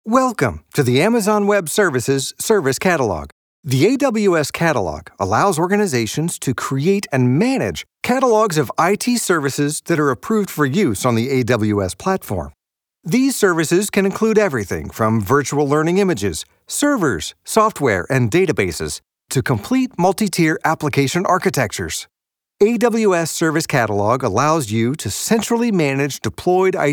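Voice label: voiceover corporate technical, Male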